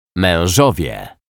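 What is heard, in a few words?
"mezowie", Polish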